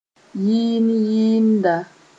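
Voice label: "yɩɩn-yɩɩnda", Mossi; singer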